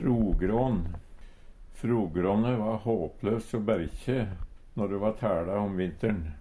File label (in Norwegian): "frogrån", Numedalsmål; Substantiv hokjønn; Frogråne va håplaus o bærkje når de va tæLa om vintern.